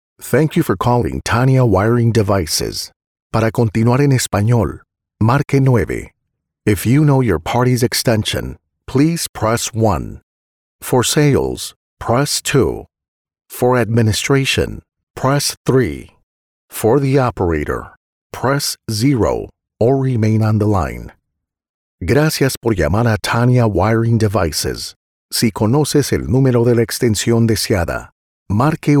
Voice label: IVR Telephone Prompt